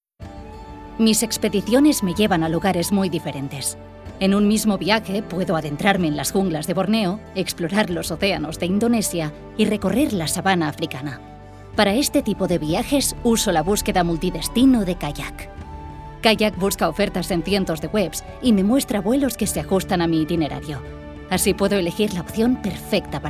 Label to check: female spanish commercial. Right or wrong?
Right